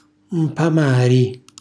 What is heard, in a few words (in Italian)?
"mpamari", Calabrese; infamare; cucina calabrese; Glossario